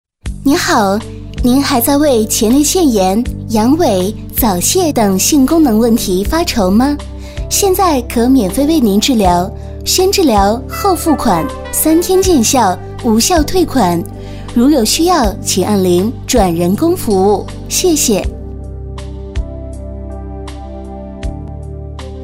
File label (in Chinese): 女 彩铃 甜美, 国语女声配音